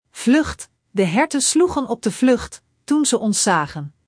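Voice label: "vlucht", Dutch; Spelling Gr4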